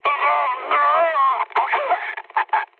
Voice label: damaged pilot, mood med, speech; War Thunder

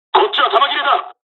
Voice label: dialog, dialogs japanese; War Thunder

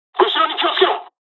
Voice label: dialogs japanese; War Thunder

